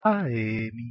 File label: "aemi" Ancient Greek